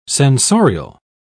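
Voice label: "censorial", British English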